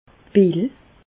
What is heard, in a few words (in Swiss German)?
"pill", Bas Rhin; medecine; pillule